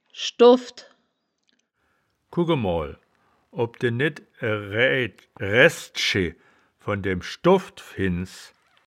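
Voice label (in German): "schdoffd", Wittlicher Wörterbuch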